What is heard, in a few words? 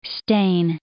"stain", English